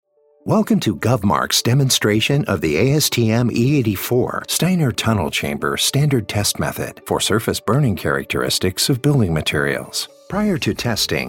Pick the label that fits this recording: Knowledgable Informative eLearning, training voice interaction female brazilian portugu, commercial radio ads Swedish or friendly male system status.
Knowledgable Informative eLearning